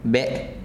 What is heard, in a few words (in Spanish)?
"cielo" Zapoteco